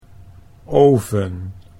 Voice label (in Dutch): "oven", Dutch